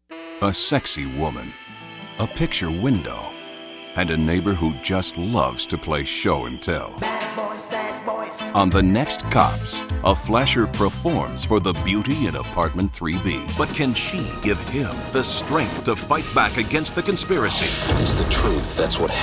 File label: Compelling Versatile Promo Imaging